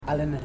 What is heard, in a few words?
"ear", Ipili